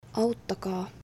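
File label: Finnish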